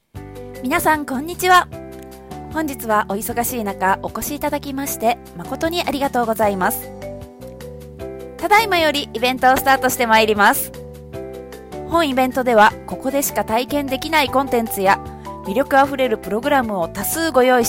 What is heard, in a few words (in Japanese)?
イベント, ナレーター MC